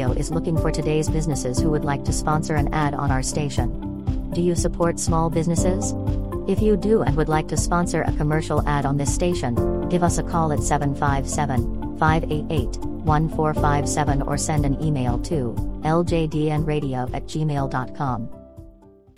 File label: spot advertisement